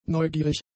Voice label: "neugierig", German; adjectives